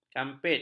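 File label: "kampit", Malay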